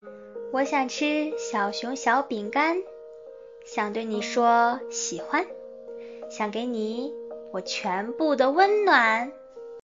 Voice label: 温暖

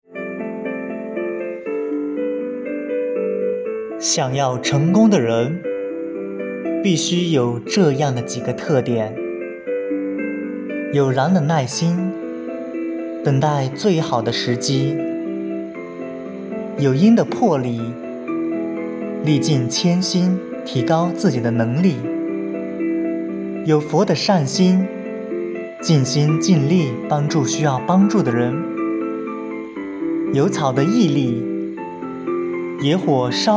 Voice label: 中英文朗读; 想要成功的人必须有的特点

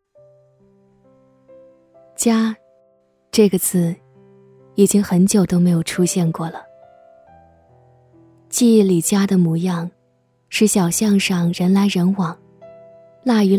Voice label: 宣传片

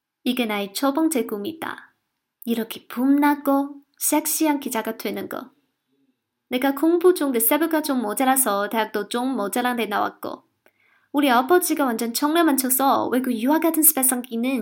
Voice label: 独白韩语

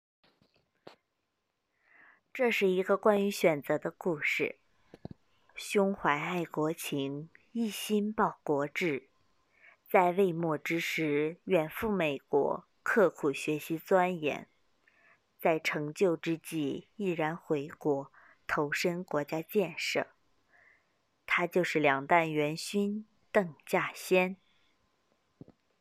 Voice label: 人物解说, 女, 普通话, 青年（18-35）

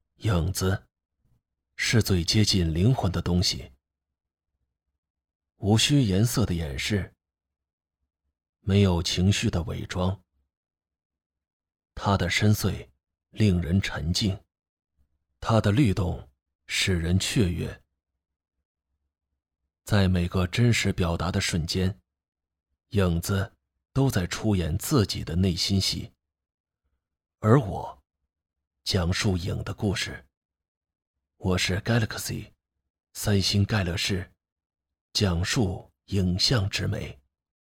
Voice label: 品牌 广告

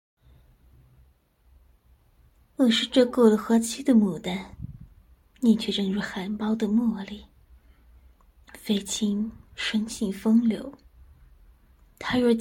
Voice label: 御姐

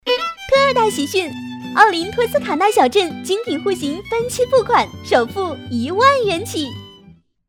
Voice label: 推荐 促销 激情 甜美 促销 地产广告

